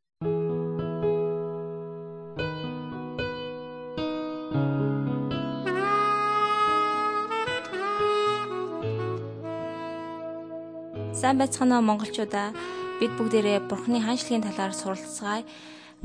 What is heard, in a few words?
mongolian